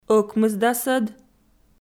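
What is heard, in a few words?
"ӧкмысдасӧд", Komi, female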